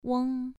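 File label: "weng", Mandarin Chinese syllable, second tone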